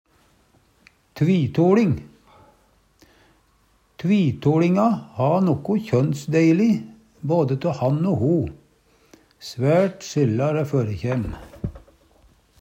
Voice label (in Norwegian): tvitoLing, Numedalsmål